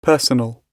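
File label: "personal", British English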